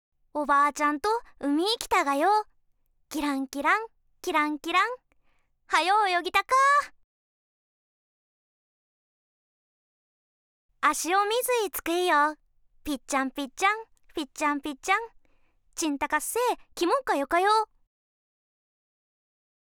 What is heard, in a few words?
Traditional dialect